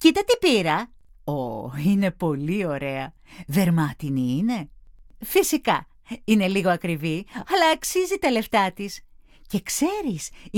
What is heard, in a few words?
dialog